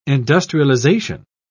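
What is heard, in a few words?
"industrialisation", British English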